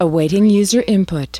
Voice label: US female, "awaiting user input"; lynne publishing

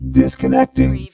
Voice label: Robot voice, disconnecting; lynne publishing

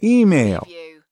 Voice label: US male "email"; lynne publishing

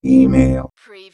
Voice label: Robot voice - email; lynne publishing

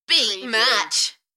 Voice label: UK female Enthusiastic; Beat match; beanstalk audio

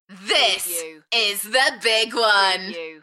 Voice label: UK female, Enthusiastic; beanstalk-audio

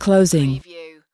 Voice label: US female closing; lynne publishing

